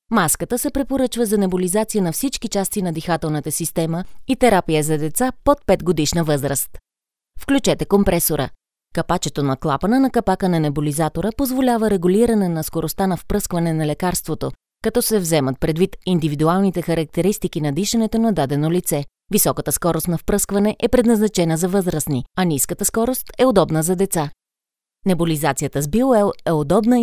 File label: Explainer video